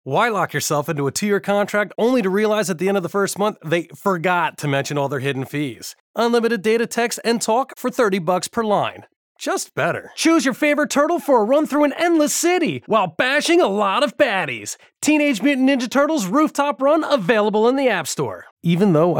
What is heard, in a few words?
commercial mix dry